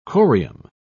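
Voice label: "corium", British English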